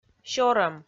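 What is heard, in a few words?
"сёрӧм" Komi, female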